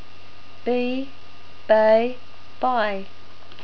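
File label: "bee", New Zealand English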